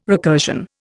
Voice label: "recursion", Indian